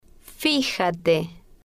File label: "fijate", spanish